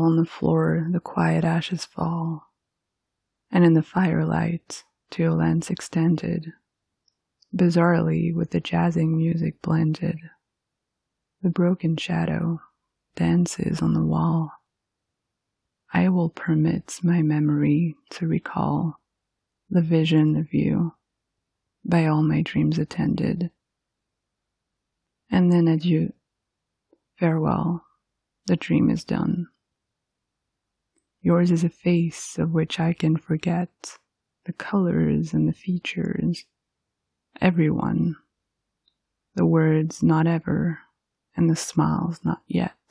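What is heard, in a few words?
Only Until This Cigarette Is Ended, Poetry, female; by Edna St. Vincent Millay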